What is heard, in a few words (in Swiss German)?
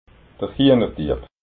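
"hienerdieb", Alsatian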